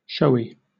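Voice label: "showy", British English; pronunciations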